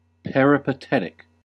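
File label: "peripatetic", US English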